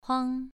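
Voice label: "huang", Mandarin Chinese, second tone, pronunciation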